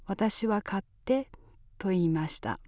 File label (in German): "katte" gewinne frage, japanese accent